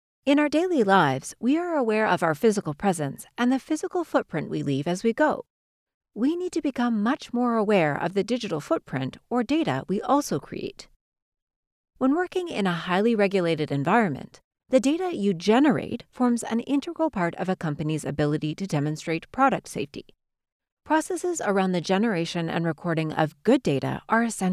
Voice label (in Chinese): 大气宣传片